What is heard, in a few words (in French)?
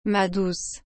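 "ma douce", French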